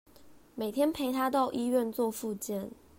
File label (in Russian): Тайваньский; 每天陪她到醫院做復健; commonvoice